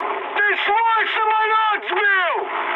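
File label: player killed ally, mood high, Russian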